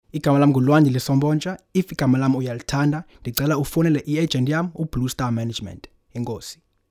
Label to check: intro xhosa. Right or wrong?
Right